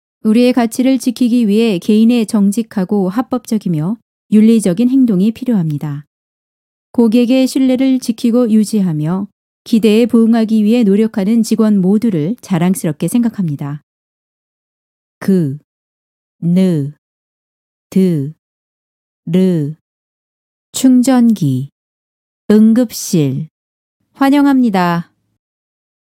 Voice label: 韩语女-干音-课件

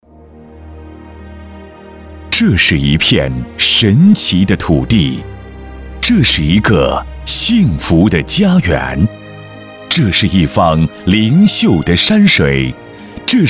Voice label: 男 专题 旅游风光片解 加音乐; 东宁旅游风光片解

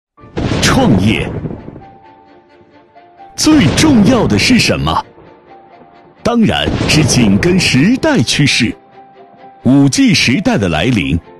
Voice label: 男 企业宣传片; 魔方

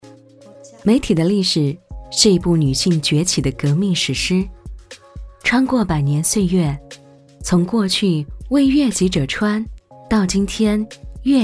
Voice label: 女 专题; 美体的历史

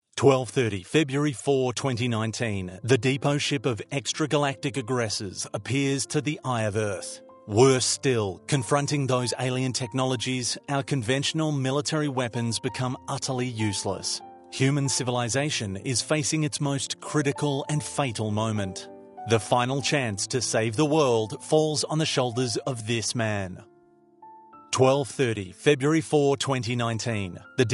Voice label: 外籍男 灾难片开头叙述 紧张气氛

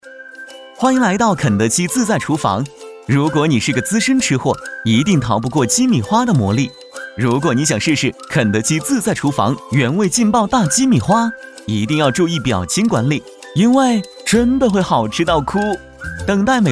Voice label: 男 MG 时尚介绍

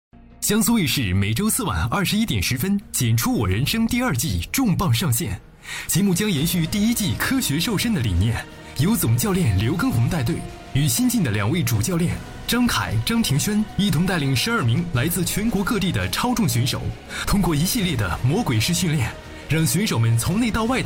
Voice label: 男 电视片花; 江苏卫视; 减出我人生

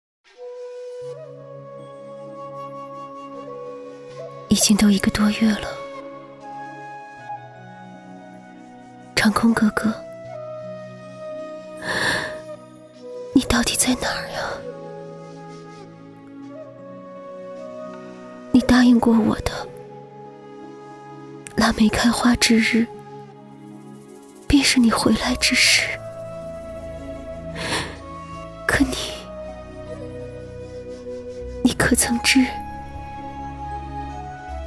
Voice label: 女 角色 甜美伤感走心 古代女子

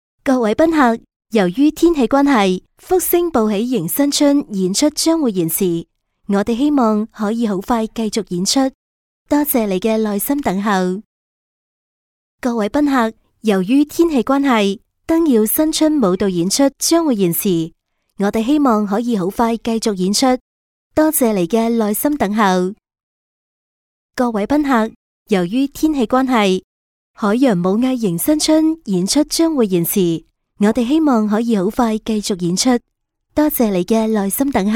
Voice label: 粤女 粤语导播; 长隆海洋王国

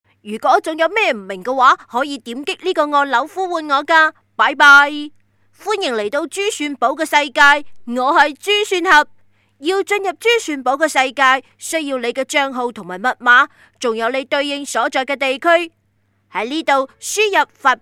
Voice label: 粤女; 珠算侠

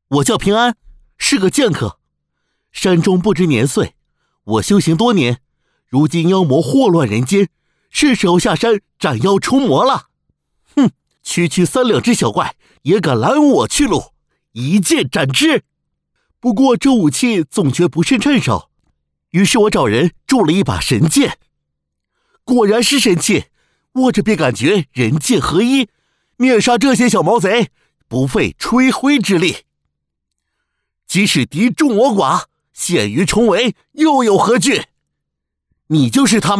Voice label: 男 剧情信息流

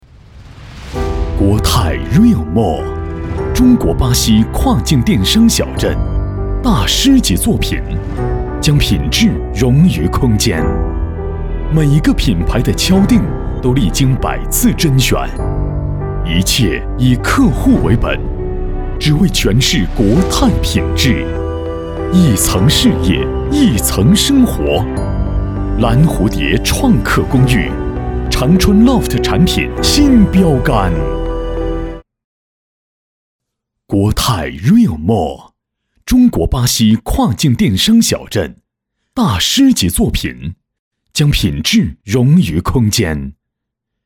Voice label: 男 专题 企业专题

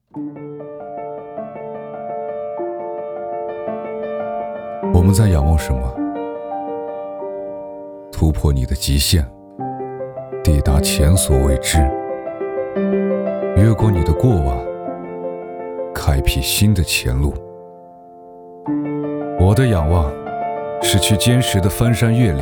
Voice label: 男 汽车广告

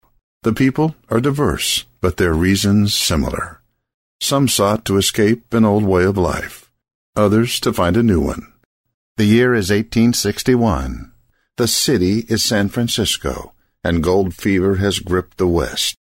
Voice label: 外籍英男 自然叙述

